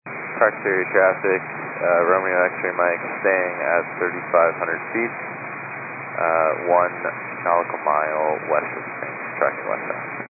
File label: 122.925 MHz; scanner radio